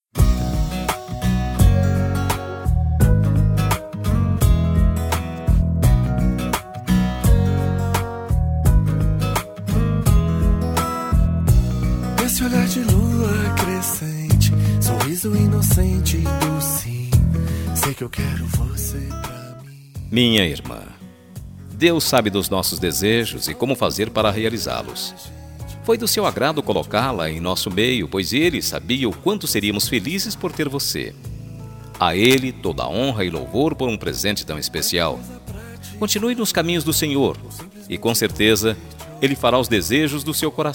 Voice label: aniversario IRMA MASC, telemensagem aniversario irma

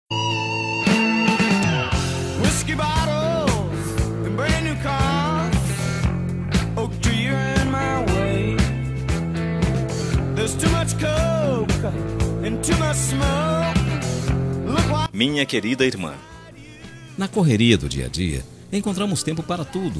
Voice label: ANIV IRMA, telemensagem aniversario irma

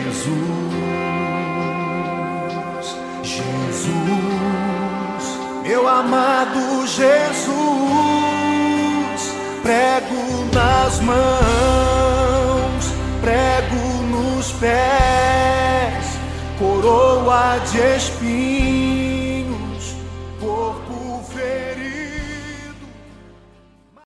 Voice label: ANIV EVANG PARA IRMA MASC, telemensagem evangelica aniversario irma

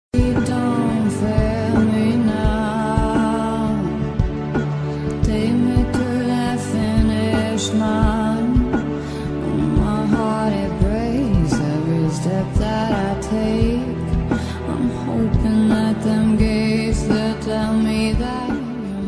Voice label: Otimismo Geral Masculino, telemensagem

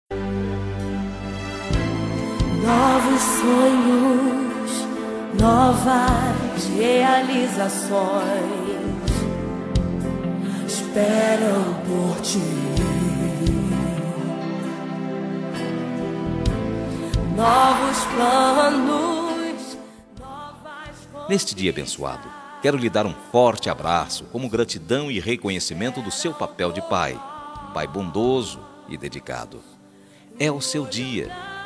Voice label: ANIV PAI, telemensagem aniversario pai

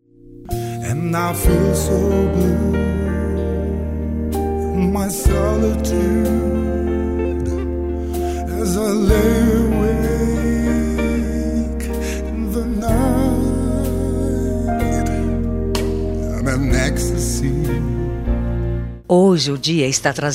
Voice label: aniversario FILHO FEM, telemensagem aniversario filha filho

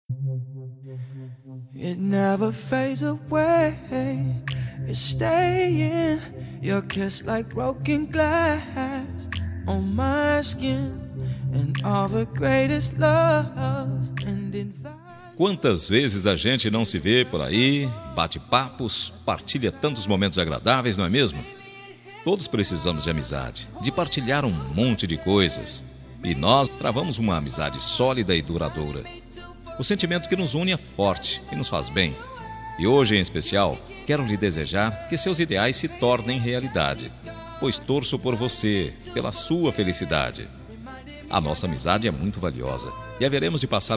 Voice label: ANIV PRIMO MASC, telemensagem aniversario primo

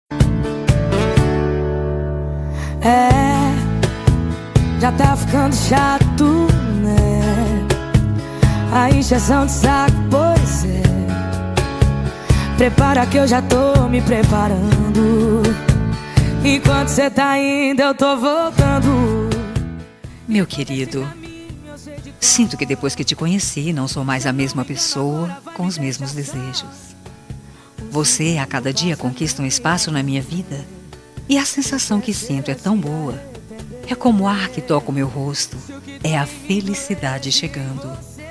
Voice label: ROMANTICA PARA ESPOSO, telemensagem

